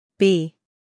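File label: "b", American English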